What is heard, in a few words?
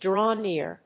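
"draw near", English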